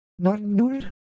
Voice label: Semai Sound; ideophones